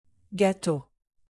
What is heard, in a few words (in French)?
"gâteau", French native; alphabet fr